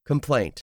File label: "complaint", English; 3000 words